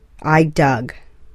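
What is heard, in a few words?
"I dug", English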